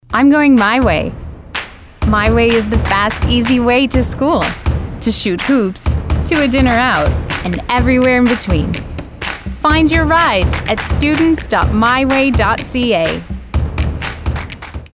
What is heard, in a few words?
English